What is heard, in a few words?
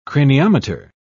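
"craniometer", English, British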